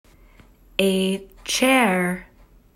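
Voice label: "A chair", English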